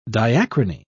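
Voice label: "diachrony", British English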